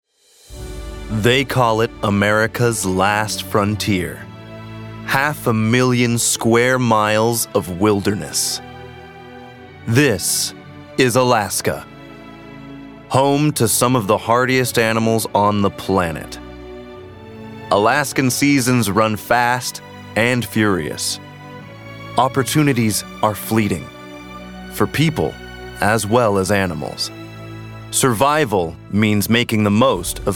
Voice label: Documentary; Alaska